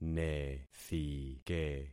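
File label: "nethike", concatenated syllables; Affixing experiment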